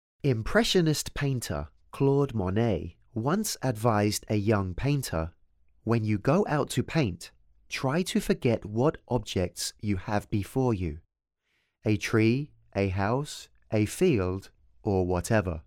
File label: English, audio guide